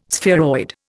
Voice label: "spheroid", Indian

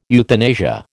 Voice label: "euthanasia", American

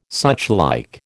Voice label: "suchlike", American

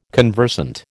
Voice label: "conversant", American

